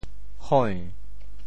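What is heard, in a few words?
"hoi~2", Teochew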